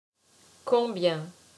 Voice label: "combien", french